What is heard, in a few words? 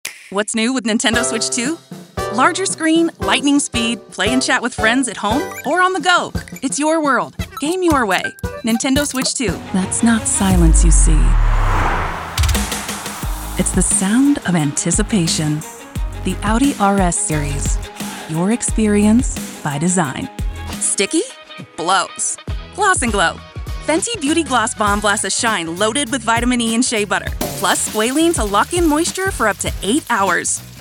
COMMERCIAL